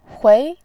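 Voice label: "hui", Mandarin Chinese, second tone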